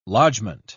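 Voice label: "lodgment", British English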